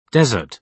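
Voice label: "desert", British English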